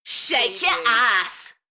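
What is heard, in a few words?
UK female, Enthusiastic, DJ vocals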